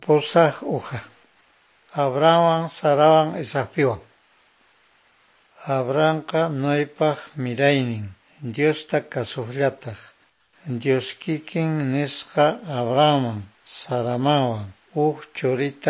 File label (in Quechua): good news quechua norte de bolivia apolo; bible